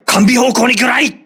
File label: voice message torpedo rear, crew ships japanese